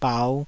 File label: "bau5", taiwanese syllables; 台語音節發音檔案